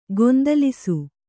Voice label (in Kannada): "ಗೊಂದಲಿಸು", Kannada